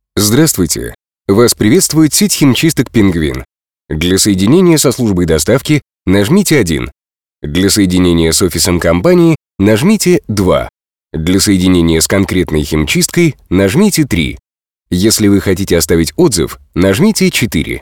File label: IVR